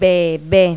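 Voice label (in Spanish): "bebe", Spanish; Pipo-Aprende musica con Pipo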